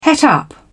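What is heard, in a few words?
"het up", English, British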